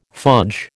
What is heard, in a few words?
"fudge", American